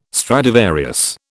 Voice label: "Stradivarius", British